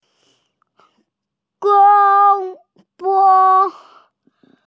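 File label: "beng4", Mandarin Chinese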